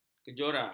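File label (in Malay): "kejora", Malay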